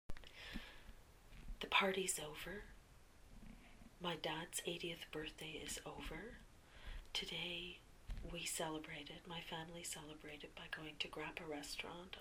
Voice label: whispering

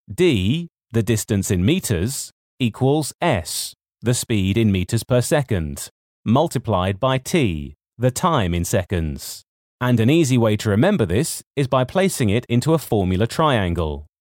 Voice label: voiceover

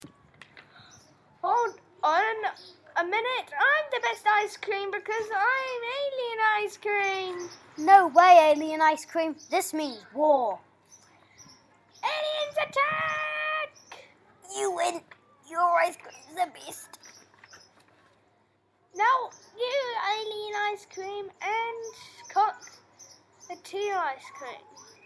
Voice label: advert

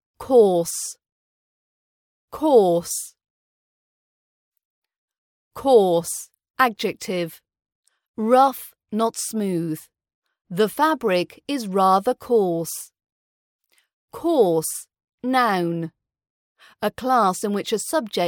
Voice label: british accent training coarse and course

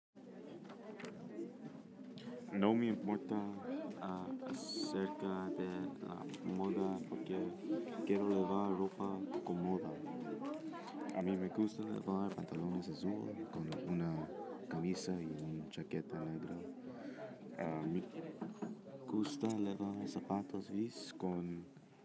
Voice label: spanish speaking part

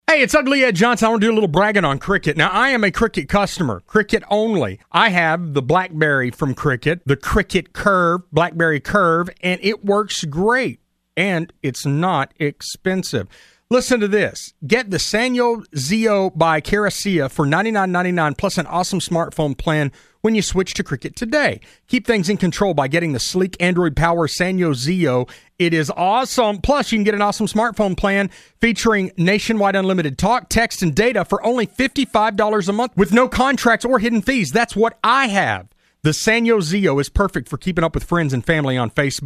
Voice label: adlib commerical; ricket wireless; alice 107 7

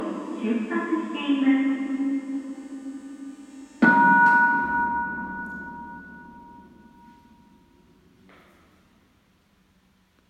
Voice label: station announcement; tsutsuishi

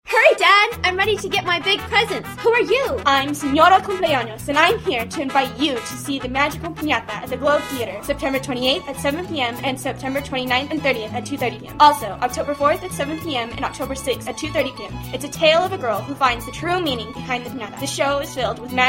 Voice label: English Ad; The Magical Pinata

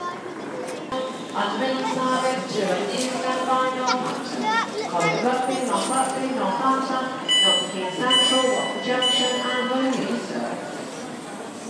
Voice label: singsong announcer at coventry station

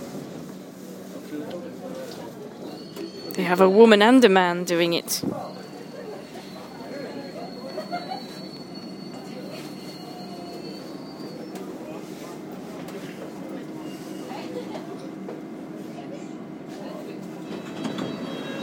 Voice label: tube announcement on a new district line train

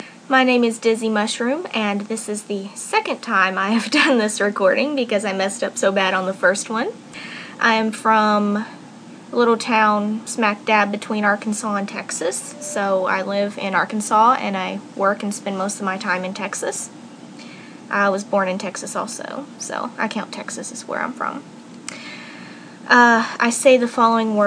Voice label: accent

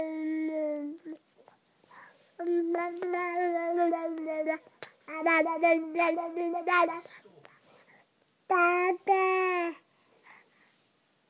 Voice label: chatting

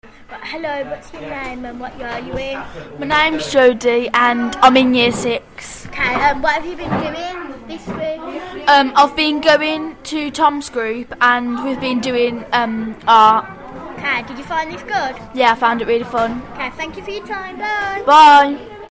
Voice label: interviewing

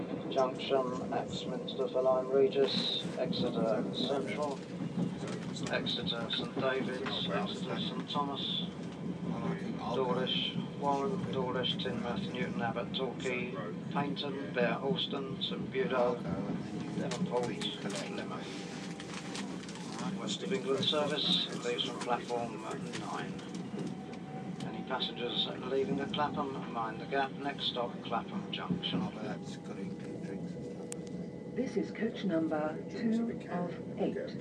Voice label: train announcement on southern train

